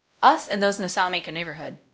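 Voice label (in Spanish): Voice Acting, es, female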